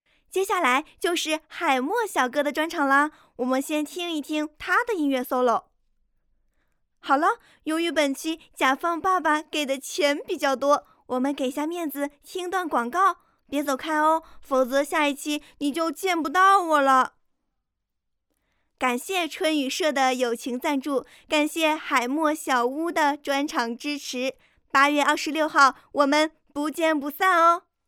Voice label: 电台配音

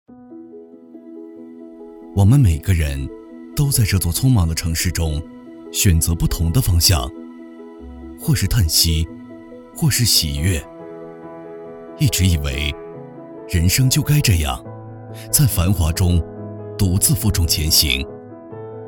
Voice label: 银行 深圳分行 走心广告; 恒丰银行

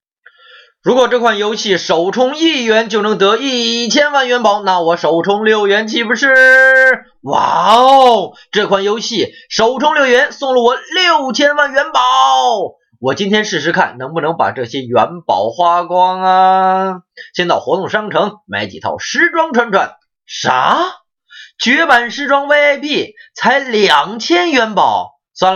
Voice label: 试音游戏

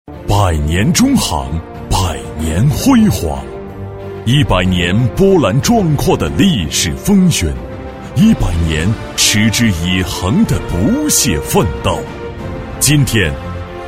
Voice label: 大气专题; 百年中行

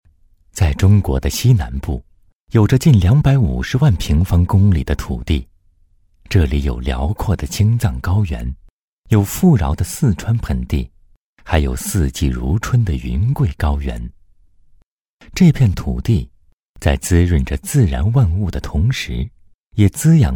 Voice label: 大气自然讲述

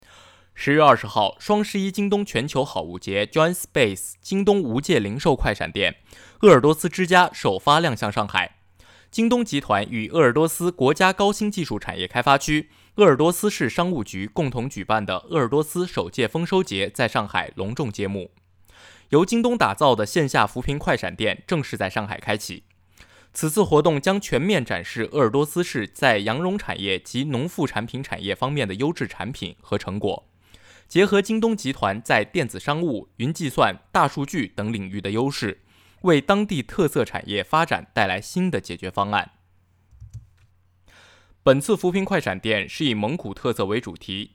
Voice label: 广告类

